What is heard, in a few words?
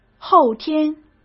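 "后天", Mandarin Chinese; hsk; chinese-flashcards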